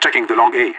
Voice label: checking long a, radio; LEET